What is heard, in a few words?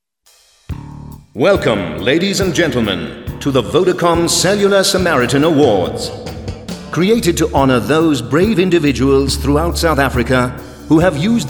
AV PRESENTER MC